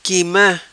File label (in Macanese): Quima, Macanese